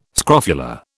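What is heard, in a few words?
"scrofula", British English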